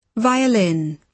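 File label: "violin", British English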